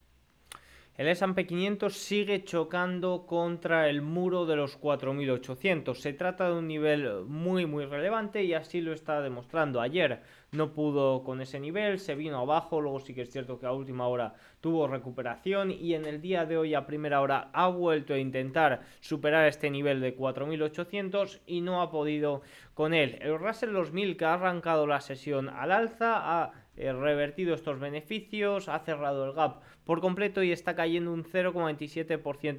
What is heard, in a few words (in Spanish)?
radio; noticias bolsa; el spx choca contra el nivel 4800